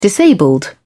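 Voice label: "disabled", British English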